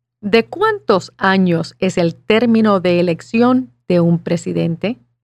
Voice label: Spanish